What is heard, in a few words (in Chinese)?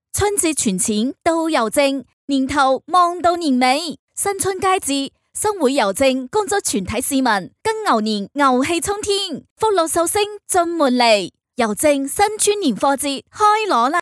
粤语银行广告